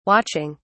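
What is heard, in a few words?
"watching", British English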